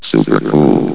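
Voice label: "supercooled", male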